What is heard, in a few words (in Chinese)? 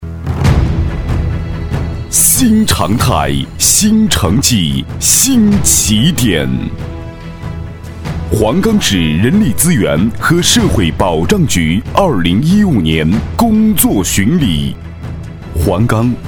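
【专题】男-震撼有力; 黄冈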